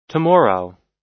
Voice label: "tomorrow", us